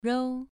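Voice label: "rou", Mandarin Chinese syllable, second tone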